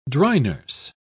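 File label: "dry-nurse", British English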